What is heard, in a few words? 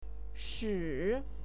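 "shi3", Mandarin Chinese pinyin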